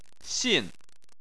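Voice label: "xin", Chinese, fourth tone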